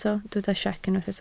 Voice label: "siec", Welsh

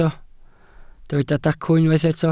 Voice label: "dacw", Welsh

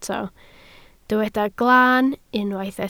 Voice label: "glaan", Welsh

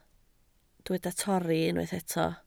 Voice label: "torri", Welsh

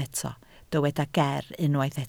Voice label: "ger", Welsh

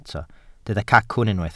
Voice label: "cacwn", Welsh

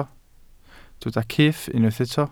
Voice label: "cyff", Welsh

